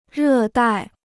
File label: "热带", Chinese